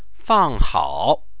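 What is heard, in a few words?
"fanghao", Mandarin Chinese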